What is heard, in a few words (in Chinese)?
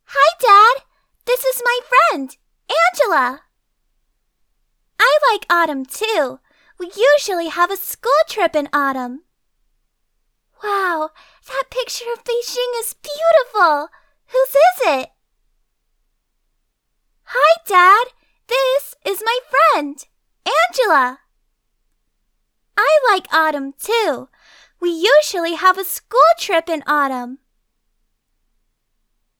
英式女童声-活泼生动